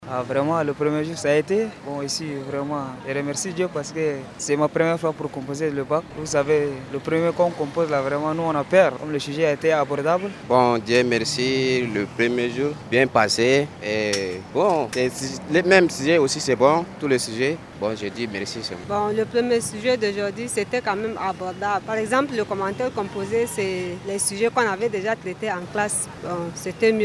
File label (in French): vox pop sur le deroulement du bac, Fr